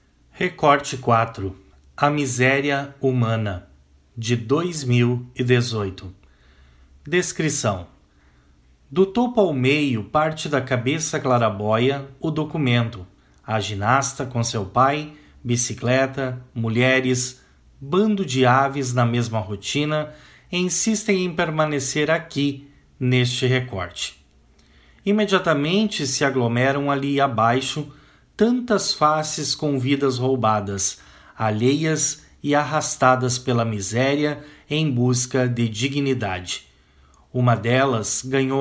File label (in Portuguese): audiodescrição; galeria virtual